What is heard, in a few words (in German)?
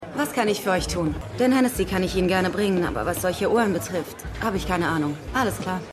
Barfrau; Stimmproben; CPD